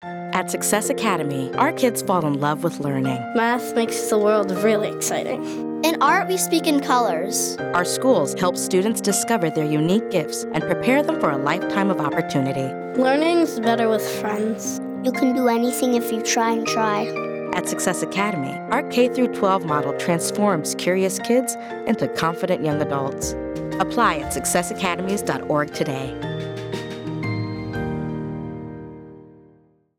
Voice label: English Radio Ad